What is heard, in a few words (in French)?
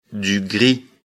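"gris", French; afrikalan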